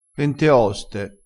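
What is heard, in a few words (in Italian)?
"penteoste", dialettale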